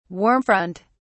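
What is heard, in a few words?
"warm front", English, British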